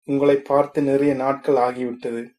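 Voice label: long time no see, tamil, male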